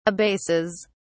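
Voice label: "abases", British English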